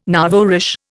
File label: "nouveau riche", Indian